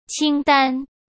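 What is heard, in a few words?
"清单", Mandarin Chinese; chinese-flashcards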